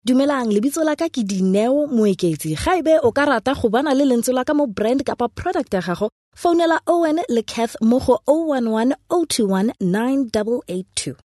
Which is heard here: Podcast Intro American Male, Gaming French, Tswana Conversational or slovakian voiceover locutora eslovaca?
Tswana Conversational